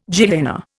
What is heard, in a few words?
"Gehenna", Indian